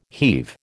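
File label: "heave", American English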